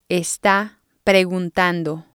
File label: "está preguntando", Spanish